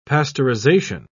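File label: "pasteurisation", British English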